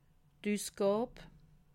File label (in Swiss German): "usgab", alsace